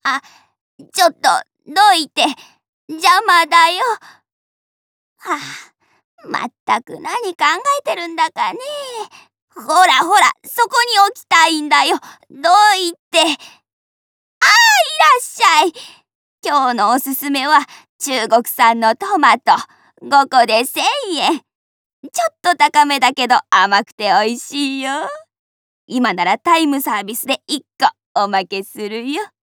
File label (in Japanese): おばあさん